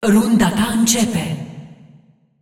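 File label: announcer female tournaments round start; clash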